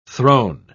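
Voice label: "thrown", English word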